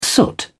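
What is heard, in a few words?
"soot", British English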